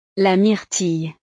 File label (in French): "la myrtille", frances; frutas